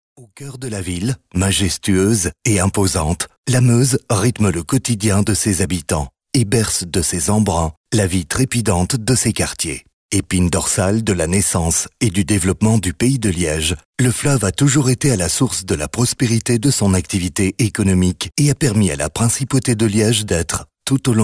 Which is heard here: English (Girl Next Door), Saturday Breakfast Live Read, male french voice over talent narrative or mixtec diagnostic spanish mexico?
male french voice over talent narrative